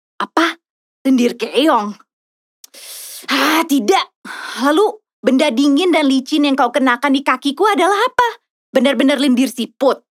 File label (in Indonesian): Character BAHASA